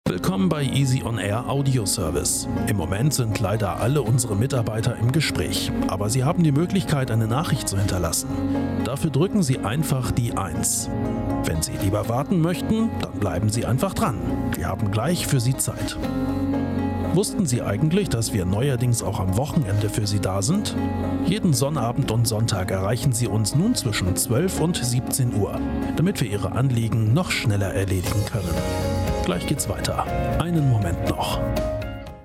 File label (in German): Telefonwarteschleife; LOFT VOICES